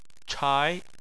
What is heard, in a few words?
"chai2", chinese; Chinese Surnames